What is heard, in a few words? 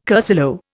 "cursillo", Indian